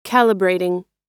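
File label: "calibrating", American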